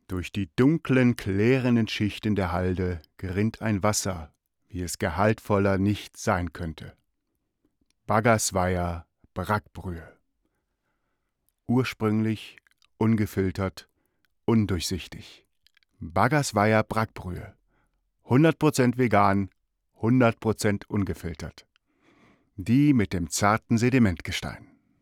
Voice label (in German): Spot